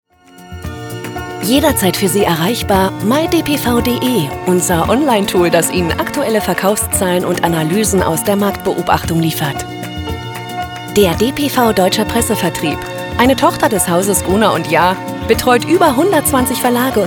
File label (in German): Imagefilm